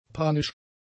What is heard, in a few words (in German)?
"panisch", German; adjectives